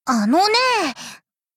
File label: angry